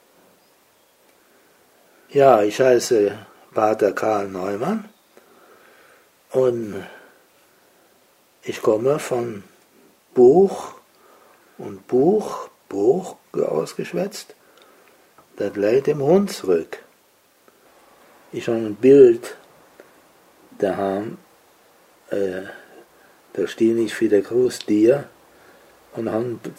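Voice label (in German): stellt sich vor, Hunsrück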